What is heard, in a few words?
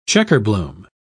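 "checkerbloom", British English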